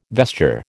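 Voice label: "vesture", American English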